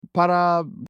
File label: money in turkish